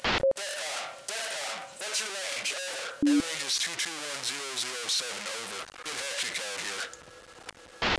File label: radio; usmc